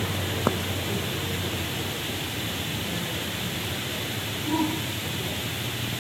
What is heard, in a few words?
whisper and who, depot